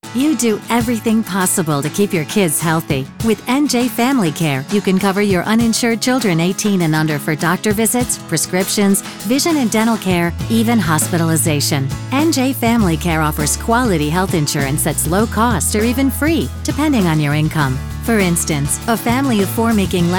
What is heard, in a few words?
english radio; njfamilycare